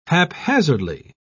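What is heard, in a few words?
"haphazardly", British English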